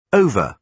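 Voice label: "over", British English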